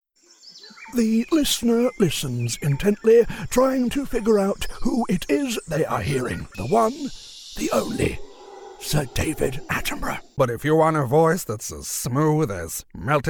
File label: Impression